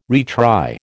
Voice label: "retry", American

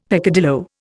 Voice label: "peccadillo", Indian; Dictionary

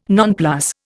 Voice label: "nonplus", Indian

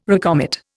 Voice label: "recommit", Indian

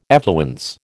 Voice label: "effluence", American

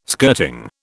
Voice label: "skirting", British

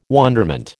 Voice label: "wonderment", American

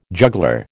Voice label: "juggler", American

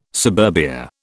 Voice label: "suburbia", British

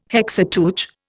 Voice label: "Hexateuch", Indian

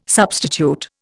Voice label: "substitute", Indian

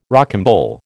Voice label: "rocambole", American

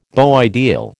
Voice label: "beau ideal", American

